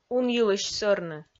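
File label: "унйылысь сӧрны", Komi, female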